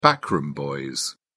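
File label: "backroom boys", British English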